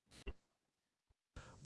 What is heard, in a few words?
hindi clone; qwen3